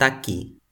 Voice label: "taki", Toki Pona